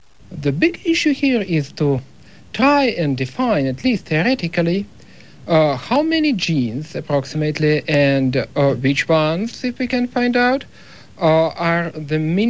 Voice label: ESL German, Non-Hispanic Non-Native Speakers, dialect